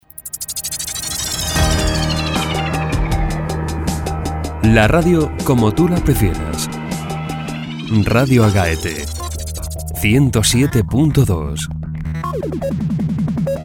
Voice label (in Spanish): jingle voz; radio